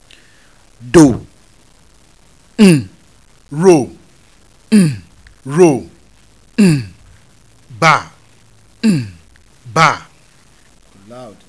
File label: miroba, Yoruba; TONES; RO BA set; L do as in ro ba